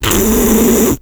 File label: scout jeers, femscout